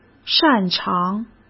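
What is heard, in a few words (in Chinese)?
"擅长", Mandarin Chinese; hsk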